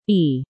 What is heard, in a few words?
"E", female